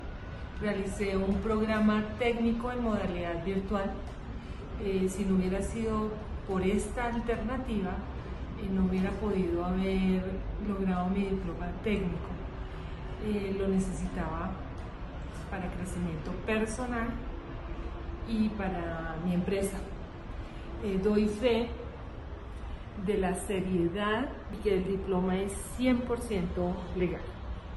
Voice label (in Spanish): Mujer tecnico; Testimonios de algunos de nuestros egresados